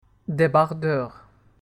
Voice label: "debardeur", French